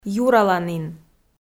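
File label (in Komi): "юраланін" Komi, female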